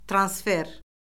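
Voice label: "transfer", Azerbaijani; Orfoqrafiya Lüğəti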